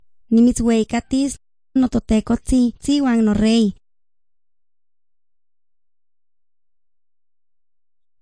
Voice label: words of life nahuatl guerrero tlapa, drama; the bible league nt

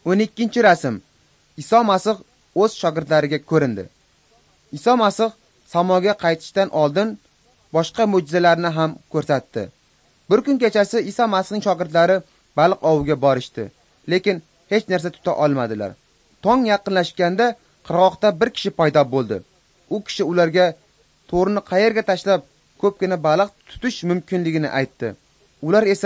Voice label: uzbek northern

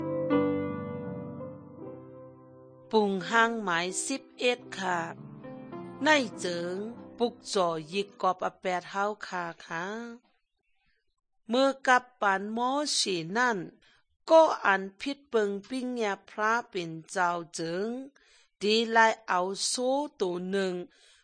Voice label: good news shan eastern, drama

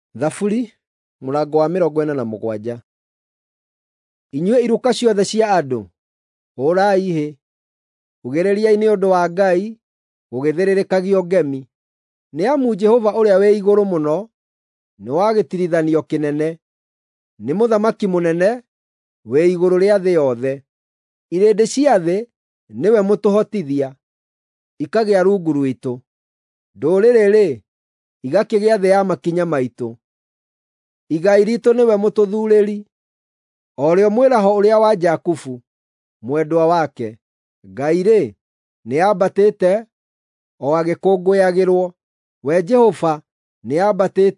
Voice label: psalms, gikuyu drama

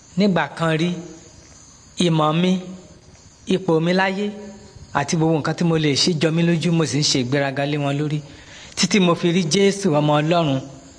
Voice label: words of life yoruba; yoruba bible

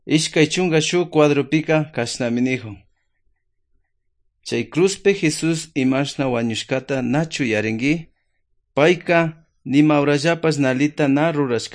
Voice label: good news quichua otovalo

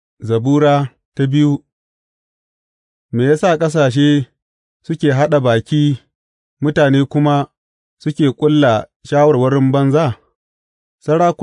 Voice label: hausa bible drama, psalms; hausa bsn nt drama

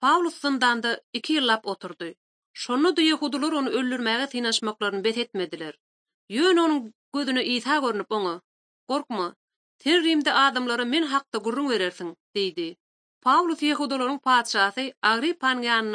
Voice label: turkmen, bible translation drama

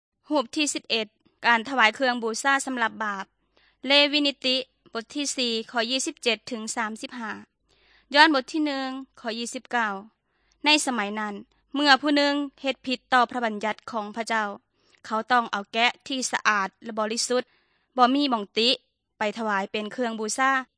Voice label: good news thai northeastern

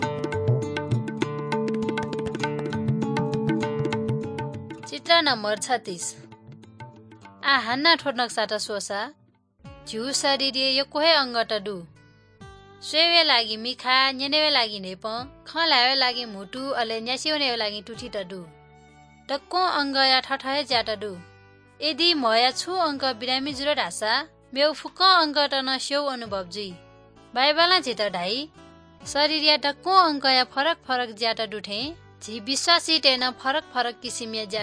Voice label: good news newari balami

